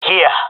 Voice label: in position, jungle male; player vo; Counter Strike Model Pack; CS:GO